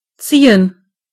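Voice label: pull, German voices words; gcompris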